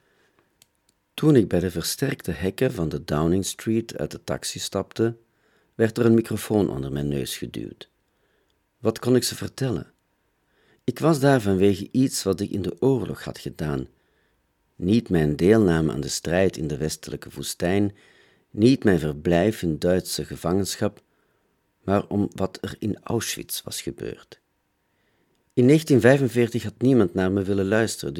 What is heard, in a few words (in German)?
Belgien M